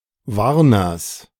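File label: "Warners", German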